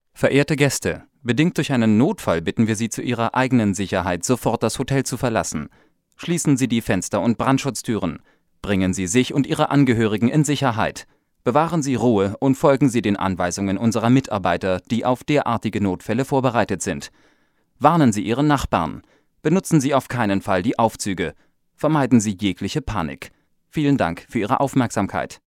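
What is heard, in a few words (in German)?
Notfallansage, männlich; Telefonansagen; deutsch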